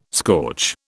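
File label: "scorch", British English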